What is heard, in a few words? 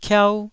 "kiau1", taiwanese syllables